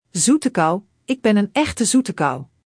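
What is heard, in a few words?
"zoetekauw", Dutch; Spelling Gr6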